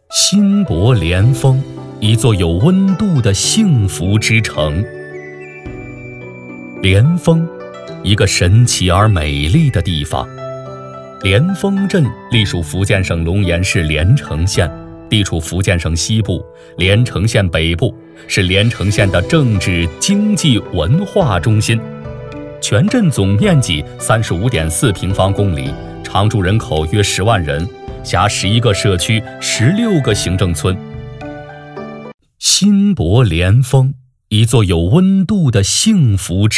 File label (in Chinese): 男 宣传片 城市介绍; 莲峰